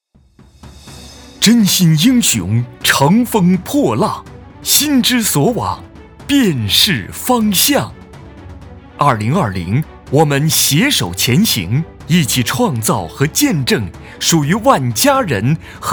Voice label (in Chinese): 振奋